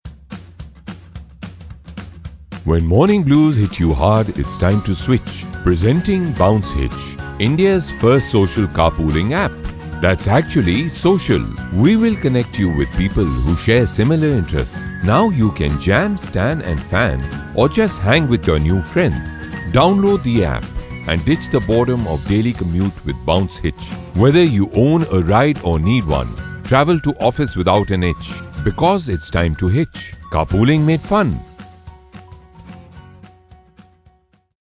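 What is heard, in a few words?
Explainer English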